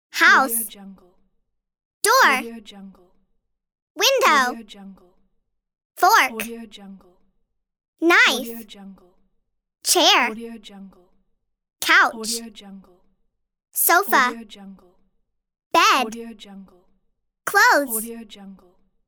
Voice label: cartoon naming items around home